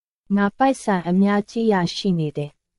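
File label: Myanmar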